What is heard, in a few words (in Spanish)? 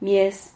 "mies", Silabas